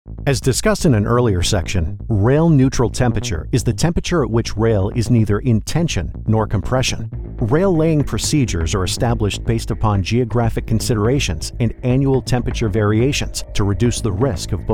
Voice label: e-learning Rail; e-learning Voiceover Demo